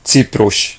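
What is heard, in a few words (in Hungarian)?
"cyprus", Hungarian; gcompris; geography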